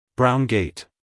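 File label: "brown gate", American English, male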